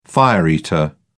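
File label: "fire-eater", British English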